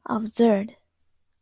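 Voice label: "absurd", Japanese, female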